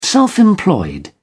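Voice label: "self-employed", British English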